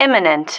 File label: "imminent", female